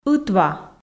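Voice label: "ытва", Komi, female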